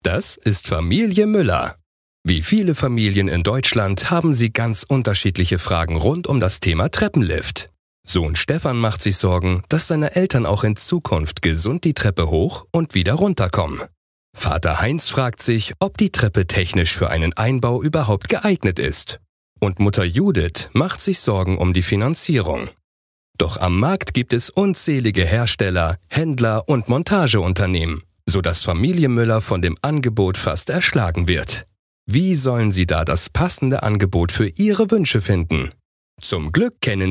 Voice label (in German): Erklaervideo Liftkonzept